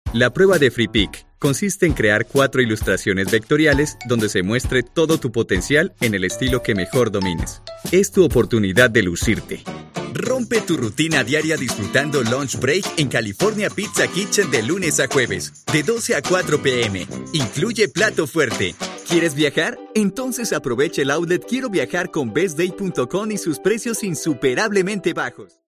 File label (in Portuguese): locutor